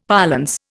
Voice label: "parlance", Indian; Dictionary